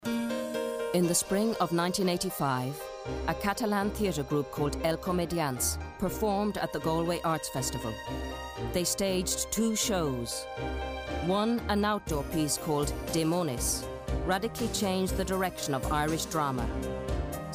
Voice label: Theatre Narrative; Catalan

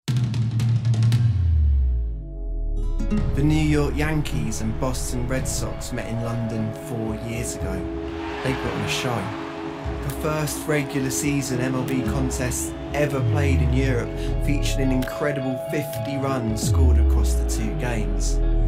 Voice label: Baseball Narration

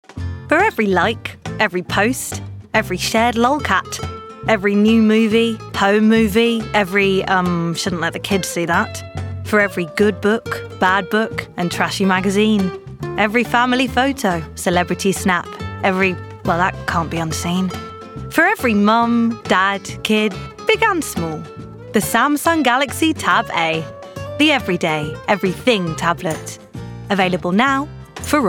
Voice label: commercial; Samsung

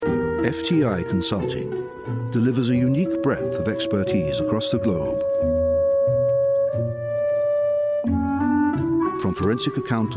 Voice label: Commercial Reel

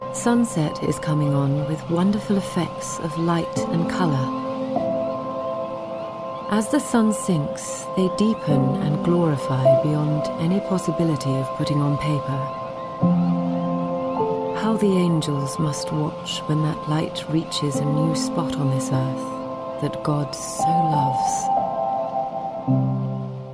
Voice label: Documentary; Many Beautiful Things; MANY BEAUTIFUL THINGS NARRATIVE

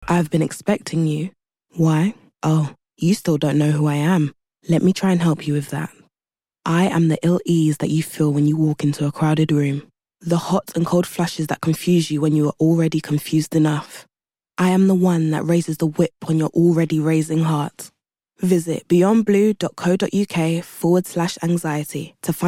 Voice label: Anxiety Commercial; Beyond Blue

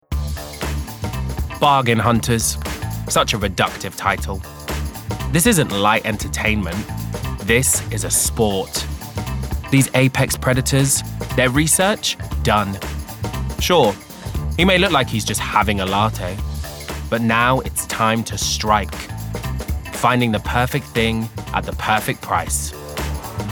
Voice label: Commercial; Ebay

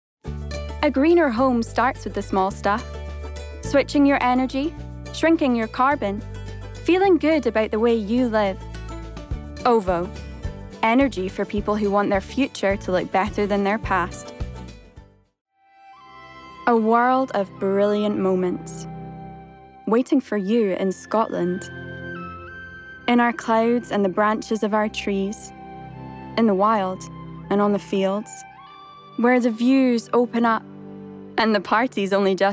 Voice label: Commercial Voice Reel

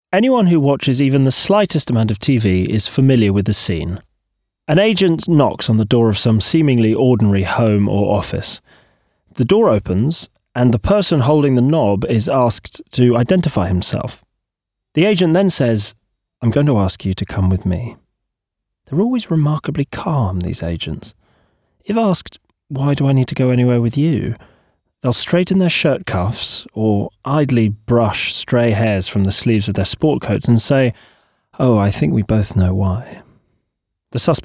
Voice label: AUDIOBOOK; Me Talk Pretty One Day

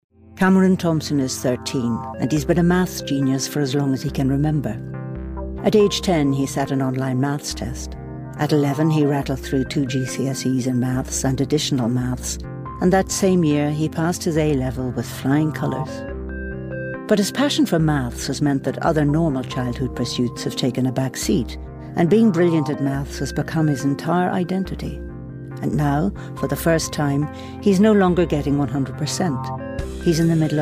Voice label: Narrative; Maths Genius

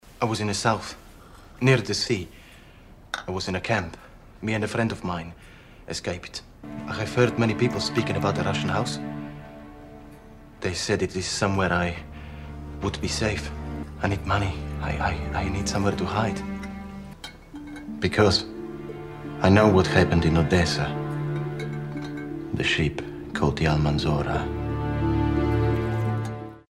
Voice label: russian accent; RUSSIAN ACCENT CLIP

